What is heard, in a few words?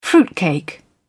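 "fruitcake", British English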